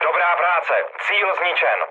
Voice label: bomb success, speech cze, mood low; War Thunder

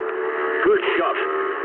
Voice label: eng player killed enemy mood low, speech eng

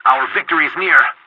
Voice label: pilot tickets lead start ally, english; War Thunder

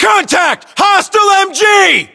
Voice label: US threat mg, battlechatter, english

